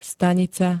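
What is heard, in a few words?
"stanica", Slovak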